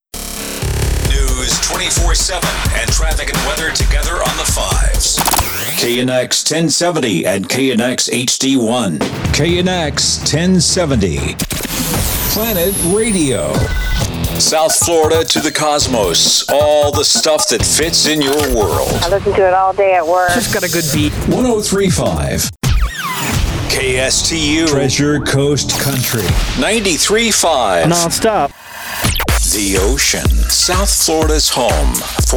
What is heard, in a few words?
imaging, voiceover